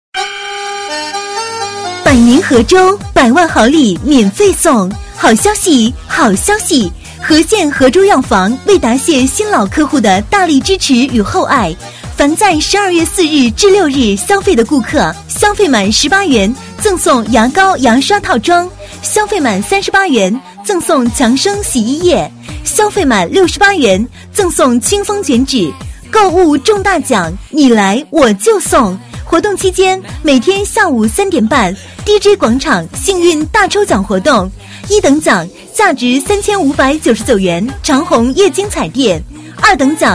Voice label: 女, 门面促销, 国语女声; 大药房

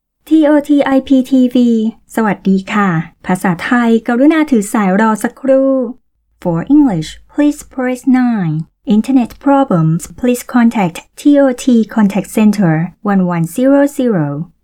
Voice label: 泰语女 电话应答

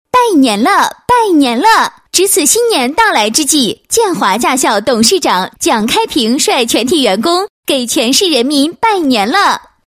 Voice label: 女 拜年广告 驾校拜年; 建华驾校拜年

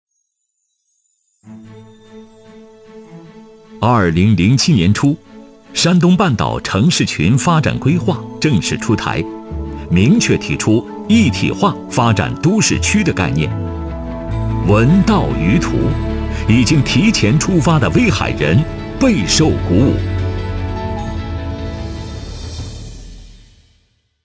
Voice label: 男 规划专题 大气磅礴; 改革发展之路

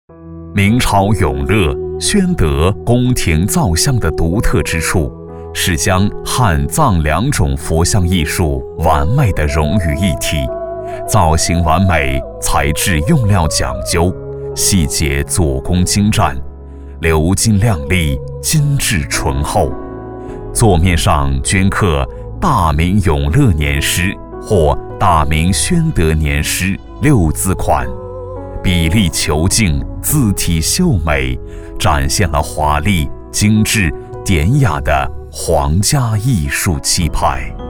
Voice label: 男 娓娓道来 佛像造型艺术

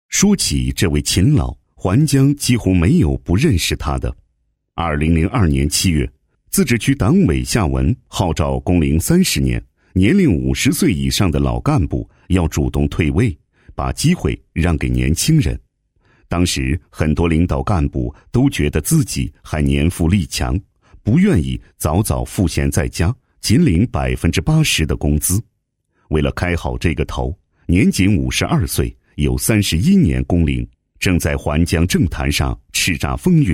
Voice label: 男, 人物; 城南社区居委会副主任

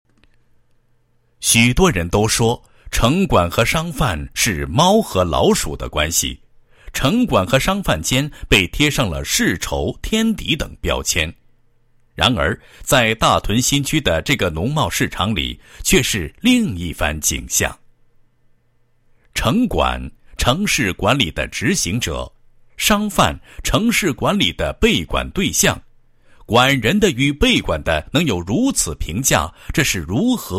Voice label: 男-党建-某市城管大队党建工作纪实, 配音案例

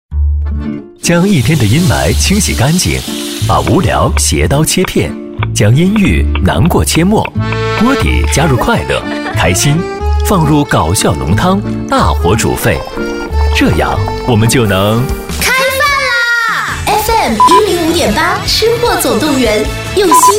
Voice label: 女 片花, 国语女声配音; 吃货总动员1

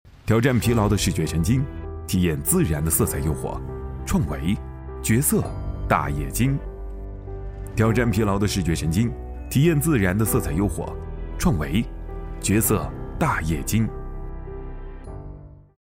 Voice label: 男 旁白 都市白领 轻快, 国语男声配音 暖声录音; 创维

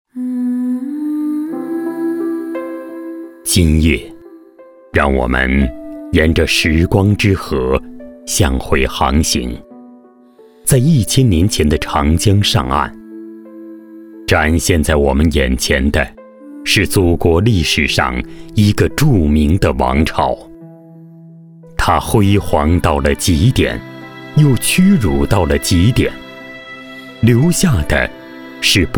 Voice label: 男 纪录片; 今夜长江

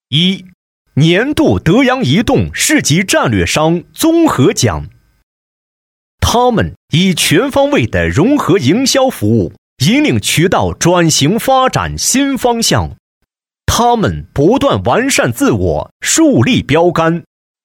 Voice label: 男, 颁奖典礼; 德阳移动颁奖典礼

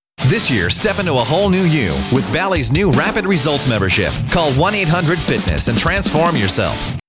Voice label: 外籍男, 商业广告, Commercial; Bally-s

